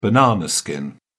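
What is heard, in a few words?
"banana skin", British English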